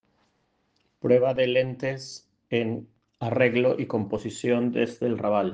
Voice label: Voice message